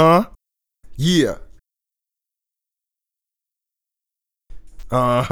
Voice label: Unhuh Yeah, MCs, Hip-Hop; Sonic Foundry (Sony Creative Software); Hydroponic Hip-Hop